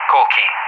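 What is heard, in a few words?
"Kolkhi", English; Callsign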